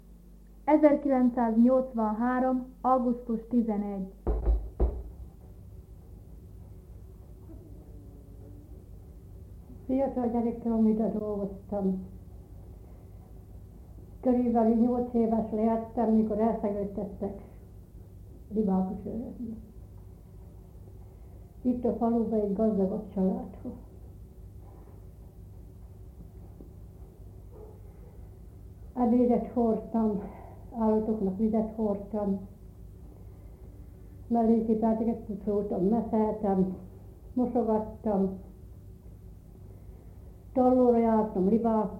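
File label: dialect collection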